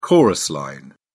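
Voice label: "chorus line", British English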